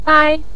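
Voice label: "gai", Mandarin Chinese, neutral tone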